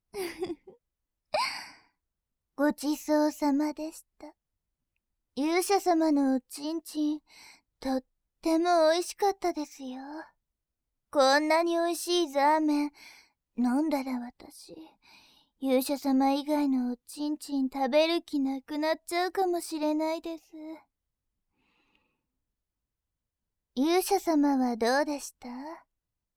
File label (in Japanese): ピロートーク